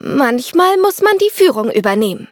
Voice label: levelup de; cookie run

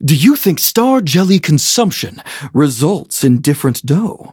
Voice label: level up, en; cookie run

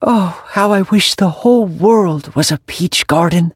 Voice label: levelup en; cookie run

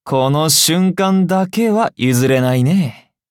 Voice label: levelup ja; Cookie Run

